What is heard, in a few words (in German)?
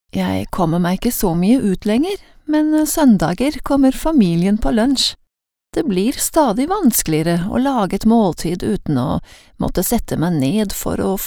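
Norwegian, Schauspiel, Variabel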